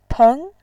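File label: "peng", Mandarin, tone 2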